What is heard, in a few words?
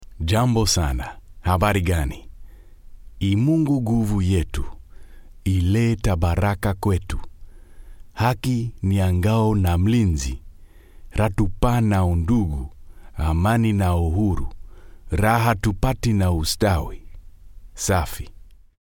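Swahili, voice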